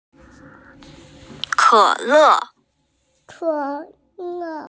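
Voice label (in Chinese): "ke3 le4" Mandarin Chinese, female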